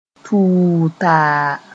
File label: "tuutaa", moore